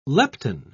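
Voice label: "lepton", British English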